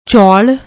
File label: "choul", Punjabi